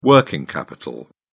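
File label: "working capital", British English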